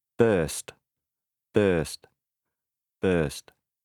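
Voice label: "burst", English; kitör valami; valaki/valami kitört valamiben; (pl. sírásban,nevetésben)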